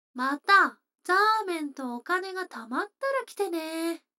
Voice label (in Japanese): 女の子 台詞, ASMR